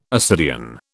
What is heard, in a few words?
"ascidian", British